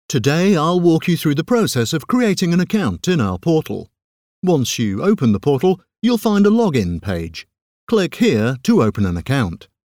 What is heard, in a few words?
narrative e-learning i t training